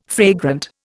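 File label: "fragrant", Indian English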